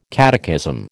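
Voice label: "catechism", American English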